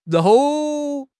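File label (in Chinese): "搂", Chinese; HSK6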